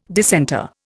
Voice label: "dissenter", Indian

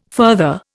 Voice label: "further", Indian

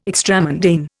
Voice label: "extramundane", Indian

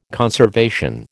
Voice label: "conservation", American

